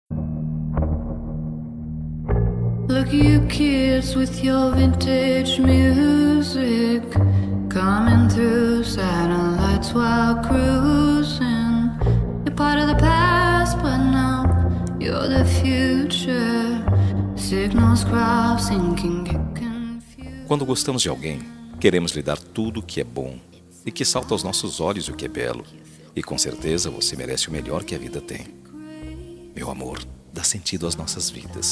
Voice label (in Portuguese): ANIV ESPOSA, telemensagem